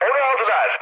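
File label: Turkish aircraft destroyed, mood high, speech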